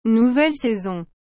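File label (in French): "nouvelle saison", French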